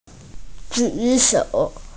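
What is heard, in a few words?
"raise hand", Mandarin; ju3shou3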